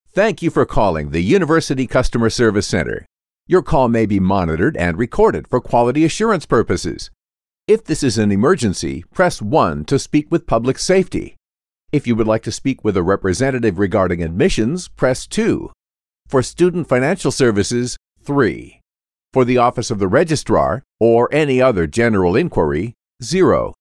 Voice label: us english ivr